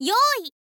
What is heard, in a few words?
info girl